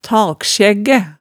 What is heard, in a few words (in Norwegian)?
"taksjæggæ", hemsedalsmaalet, tale